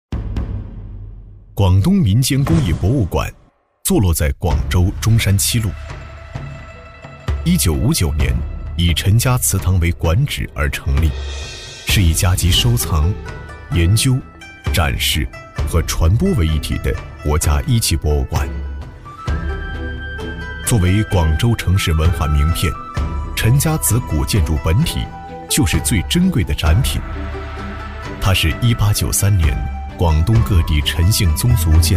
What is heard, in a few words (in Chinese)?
男 纪录片 美术馆; 广州美术馆